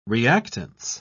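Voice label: "reactance", British English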